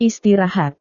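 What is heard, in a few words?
"istirahat", Indonesian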